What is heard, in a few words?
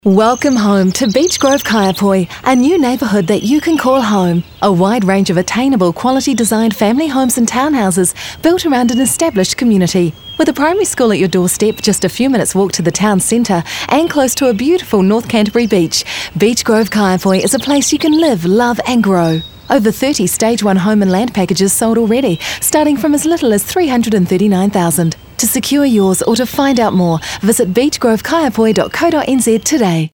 Radio Ad